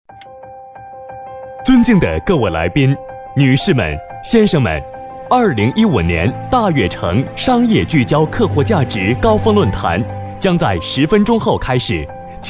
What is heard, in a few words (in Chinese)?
【中英文】试听男